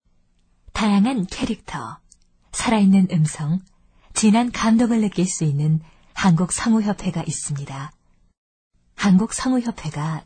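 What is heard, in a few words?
Korean female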